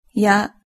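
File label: "ya", Japanese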